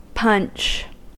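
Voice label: "punch", American English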